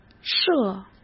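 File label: "射", Mandarin Chinese; hsk; chinese-flashcards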